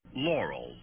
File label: yanny or laurel bandstop